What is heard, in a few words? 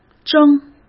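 "征", Mandarin Chinese; hsk; chinese-flashcards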